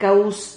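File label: "cauz", Spanish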